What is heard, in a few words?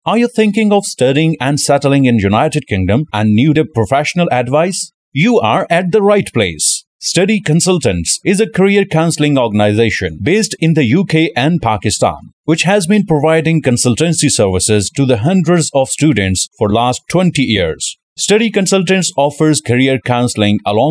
English; UK Study Consultants